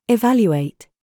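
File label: TRAP, words, British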